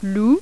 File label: french